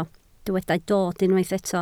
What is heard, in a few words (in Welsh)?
"dod", Welsh